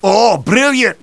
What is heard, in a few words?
cheer; tf2demoman